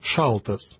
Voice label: "Saltas", Lithuanian